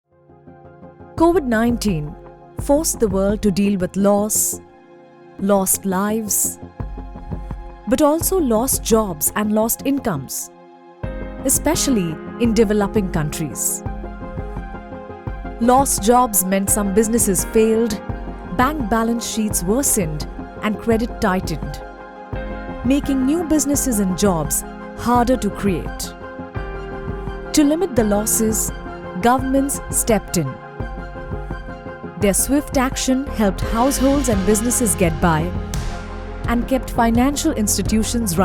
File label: english indian documentaries; professionelle indische Sprecherin; indian english voice actors